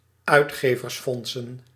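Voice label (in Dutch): "uitgeversfondsen", Dutch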